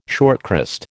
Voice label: "shortcrust", American